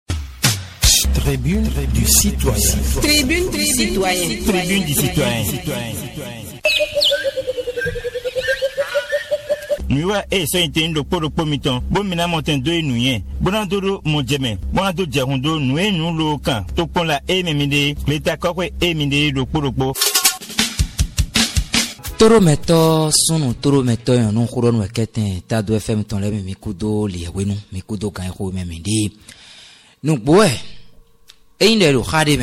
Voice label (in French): radio; radio tado fm